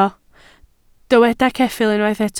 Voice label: "ceffyl", Welsh